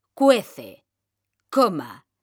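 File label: "CUECE COMA", Spanish; mekanta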